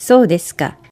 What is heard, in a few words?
"soudesuka", Japanese